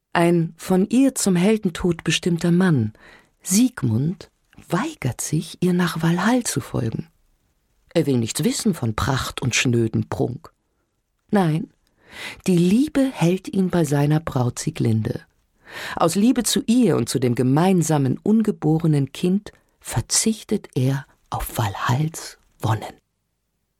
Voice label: Museumsguide, frauen; sprecher; Kommentar; Bruennhilde

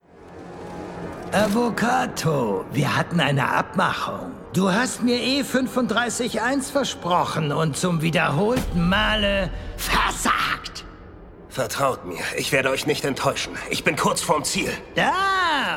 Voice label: Synchron, maenner; Final Space

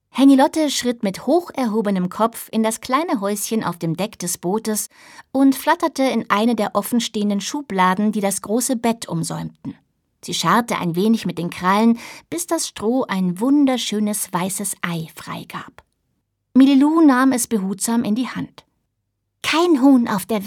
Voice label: Hörbuch, frauen; Flusskind

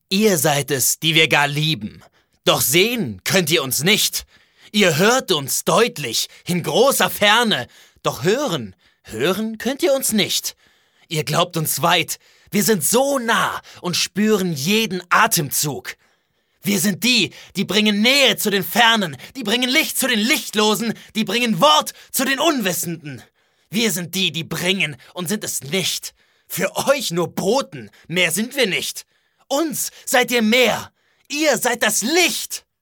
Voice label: aggressiv, maenner

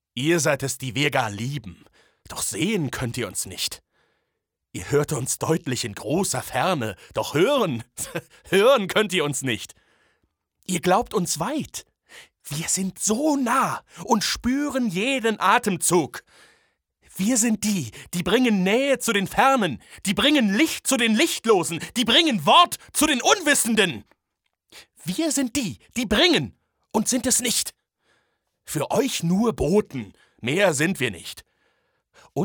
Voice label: aggressiv

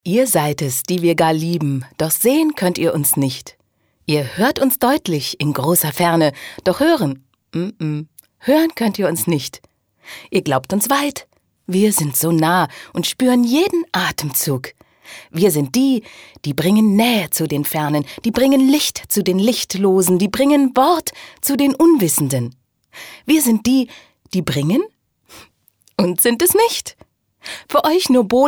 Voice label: froehlich, frauen

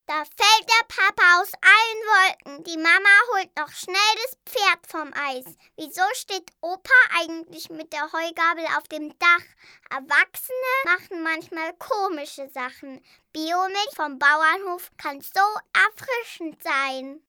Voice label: Spot Biomilch

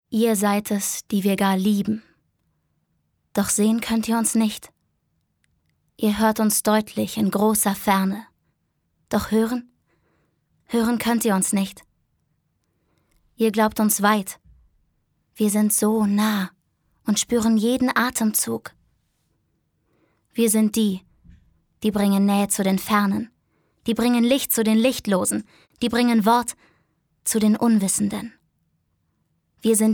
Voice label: poetisch

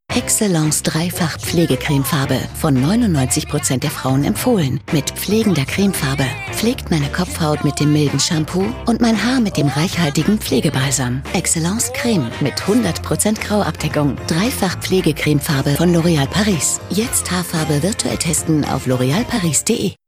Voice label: Off, frauen; L'Oréal Paris; Excellence

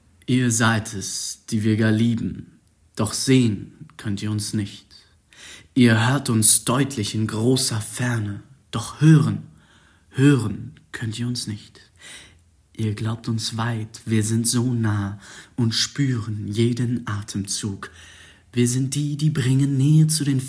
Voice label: poetisch, jungen

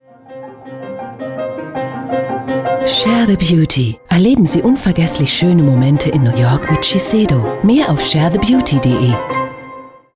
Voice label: Spots, frauen; Shiseido

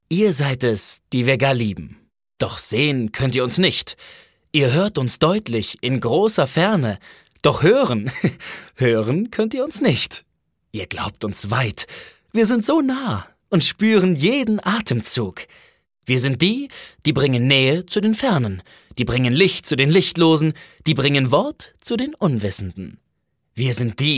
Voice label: fröhlich, maenner

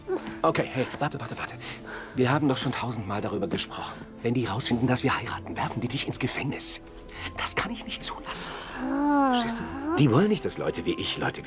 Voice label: Synchron, maenner; Phantastische Tierwesen; Grindelwalds Verbrechen

